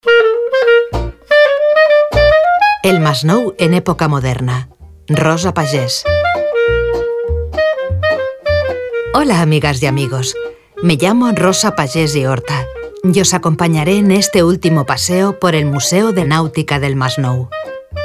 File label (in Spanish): audioguia esp